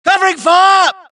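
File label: suppress, dialogue, Allies; mohaa; Mission 1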